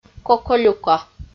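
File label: "коколюка" Komi, female; audio dict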